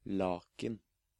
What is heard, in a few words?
"Laken", Norwegian